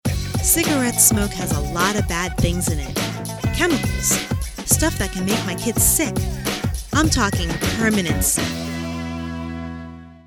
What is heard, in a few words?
psa Voice Over